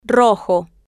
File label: "rojo", spanish